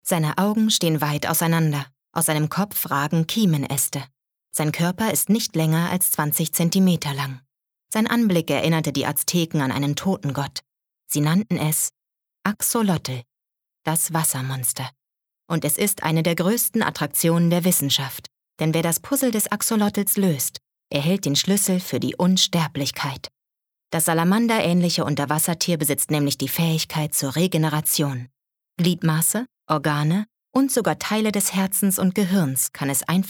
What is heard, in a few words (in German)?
Dokumentarischer Text